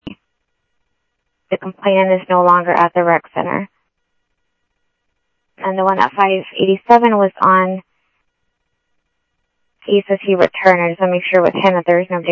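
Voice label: Lakes Sheriff Lake Patrol, scanner audio trunk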